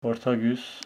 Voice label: Homshetsi october